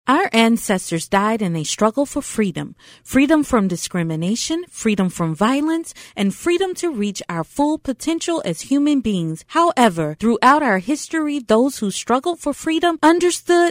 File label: Female VOTE SPOT FEMALE VOICE